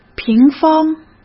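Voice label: "平方", Mandarin Chinese; chinese-flashcards; hsk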